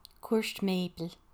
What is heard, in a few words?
Maple Court, Gaelic